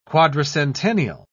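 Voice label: "quadricentennial", English, British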